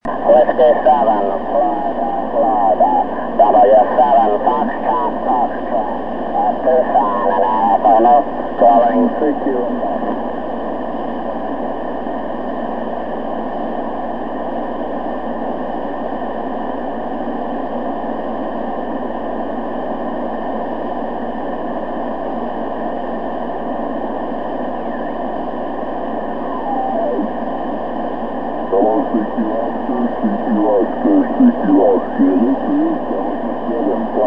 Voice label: calling CQ in SSB